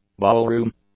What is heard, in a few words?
"ballroom", English; nouns